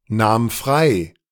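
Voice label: "nahm frei", German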